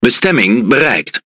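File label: NL Speech Autopilot Checkpoint, Dutch; World Of Warships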